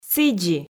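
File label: "сідзи" Komi, female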